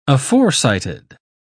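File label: "aforecited", British English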